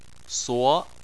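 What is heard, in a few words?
"suo", Mandarin Chinese, second tone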